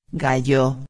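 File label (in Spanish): "gallo", Spanish; ortografia; heterosemanticos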